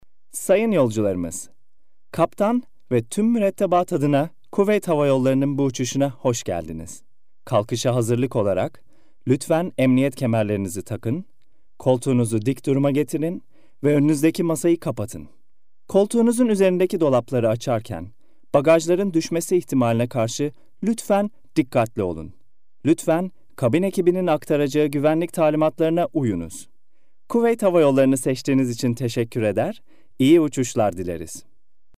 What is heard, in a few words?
male turkish voice over talent sales